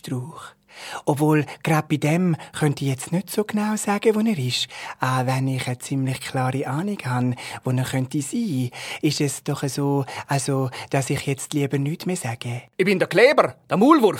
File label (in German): trickstimmen wald